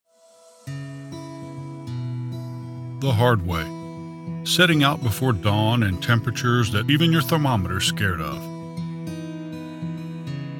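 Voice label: English US, narration; GoLocalise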